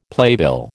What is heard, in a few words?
"playbill", American